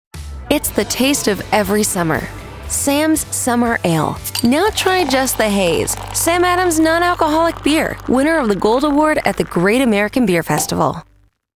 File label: nostalgic relaxed warm, commercial promo; sam adams